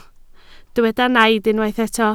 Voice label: "naid", Welsh

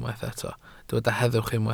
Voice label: "heddwch", Welsh

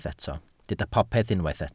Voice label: "popeth", Welsh

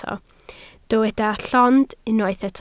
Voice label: "llond", Welsh

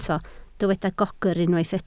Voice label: "gogr", Welsh

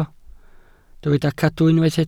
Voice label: "cadw", Welsh

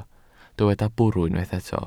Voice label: "bwrw", Welsh

